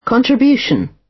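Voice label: "contribution" British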